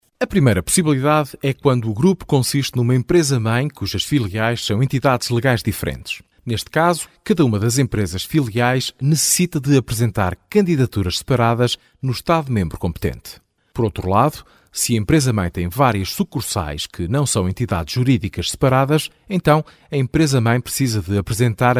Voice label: male, portuguese voices